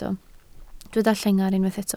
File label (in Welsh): "llengar", Welsh